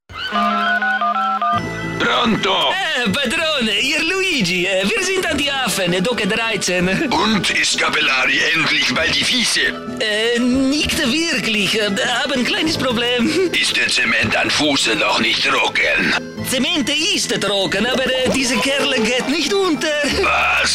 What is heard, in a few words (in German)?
Werbung; Red Bull Mafia